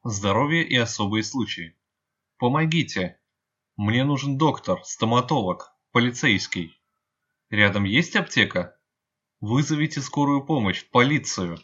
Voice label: emergency, russian phrasebook